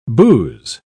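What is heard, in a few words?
"bouse", British English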